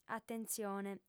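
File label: "atentzione", female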